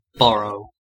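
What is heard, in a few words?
"burrow", US English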